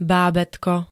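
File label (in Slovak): "bábätko", Slovak; hovor. novorodeniatko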